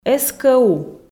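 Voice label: "ску", Komi, female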